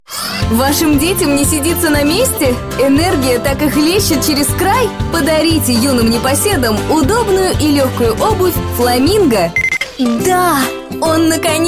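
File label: female, Russian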